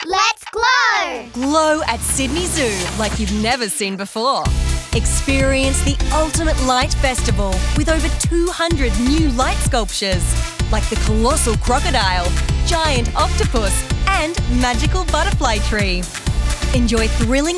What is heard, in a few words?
Announce; Sydney Zoo Glow 30; glow campaign